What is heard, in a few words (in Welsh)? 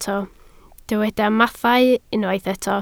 "mathau", Welsh